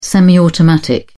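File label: "semiautomatic", English, British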